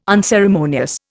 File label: "unceremonious", Indian